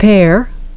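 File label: "pear", English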